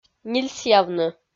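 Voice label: "нильсъявны", Komi, female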